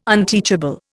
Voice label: "unteachable", Indian

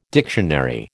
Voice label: "dictionary", American

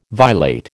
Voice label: "violate", American

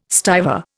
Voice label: "stiver", Indian

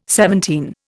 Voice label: "seventeen", Indian

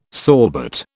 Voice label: "sorbet", British

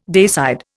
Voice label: "dayside", Indian

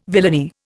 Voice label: "villainy", Indian

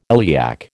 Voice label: "iliac", American

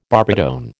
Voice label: "barbitone", American

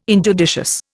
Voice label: "injudicious", Indian

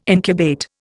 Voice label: "incubate", Indian

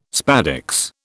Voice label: "spadix", British

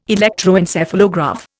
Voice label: "electroencephalograph", Indian

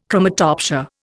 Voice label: "chromatopsia", Indian

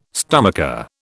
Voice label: "stomacher", British

